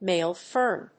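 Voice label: "Male fern", English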